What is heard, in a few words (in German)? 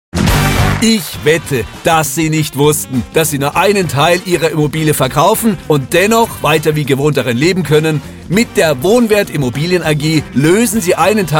Radio Spot; Wohnwert AG